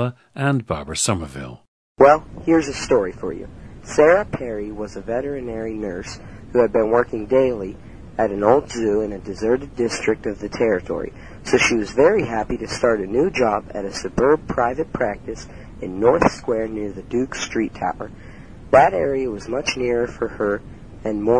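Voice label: ohio, International Dialects of English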